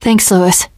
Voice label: thanks, teen girl; survivor voice